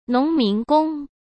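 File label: "农民工", Mandarin Chinese; chinese flashcards; hsk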